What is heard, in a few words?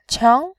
"qiang2", voice